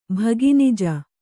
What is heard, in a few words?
"ಭಗಿನಿಜ", Kannada